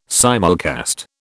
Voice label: "simulcast", British